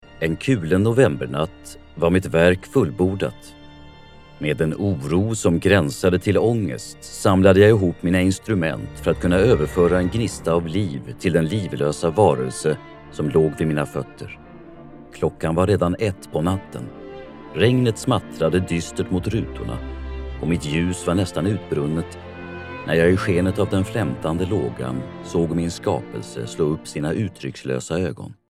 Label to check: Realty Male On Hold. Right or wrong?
Wrong